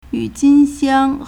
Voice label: "yu4jin1xiang1", Chinese

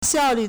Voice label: "xiao4lv4", Chinese

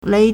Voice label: "lei2", Chinese

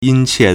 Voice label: "yin1 qie4", Chinese

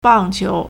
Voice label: "bang4qiu2", Chinese

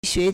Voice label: "xue2", Chinese

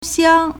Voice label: "xiang1", Chinese

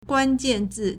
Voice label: "guan1jian4zi4", Chinese

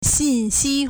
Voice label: "xin4xi1", Chinese

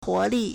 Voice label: "huo2 li4", Chinese

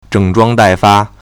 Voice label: "zheng3zhuang1dai4fa1", Chinese

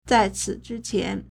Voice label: "zai4ci3zhi1qian2", Chinese

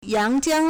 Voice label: "yang2jiang1", Chinese

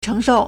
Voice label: "chéngshòu", Chinese; 承受; verb; to bear; to support; to inherit; Literary Chinese 文言文

